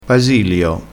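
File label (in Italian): "basilio", vocabolario dialettale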